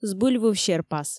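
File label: "збыльвыв серпас", Komi, female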